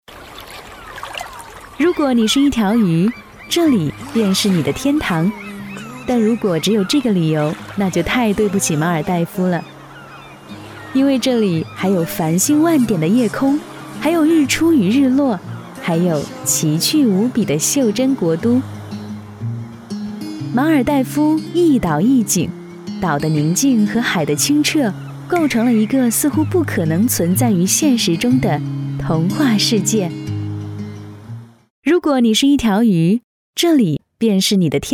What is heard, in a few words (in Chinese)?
女 文旅宣传; 马尔代夫